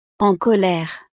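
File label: "en colere", French; estados animo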